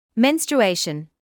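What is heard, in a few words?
"menstruation", British English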